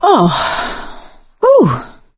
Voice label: pain relieft pills, survivor voice; source engine; left 4 dead 2; producer